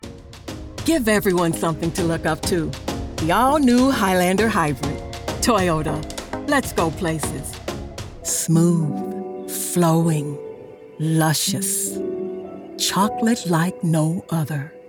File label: Commercial